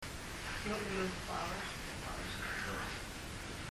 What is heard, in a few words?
male voice